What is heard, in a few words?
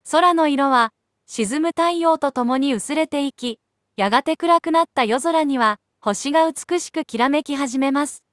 ja-JP; iOS 26